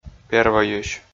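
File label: "первойысь", Komi, male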